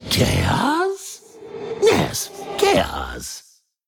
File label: Male Ethereal Hero Thinking